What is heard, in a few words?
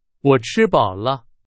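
Chinese, example sentence; hsk2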